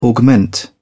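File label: "augment", British English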